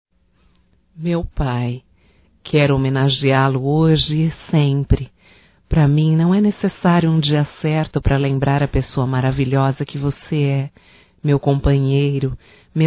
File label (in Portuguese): HOMENAGEM AO PAI, Só Voz, fem; Telemensagens; HOMENAGENS